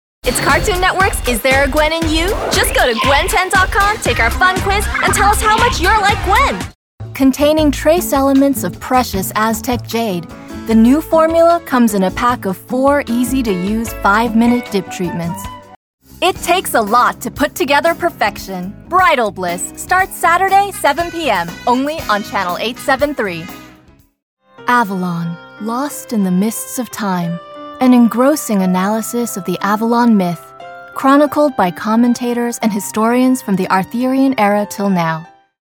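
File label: American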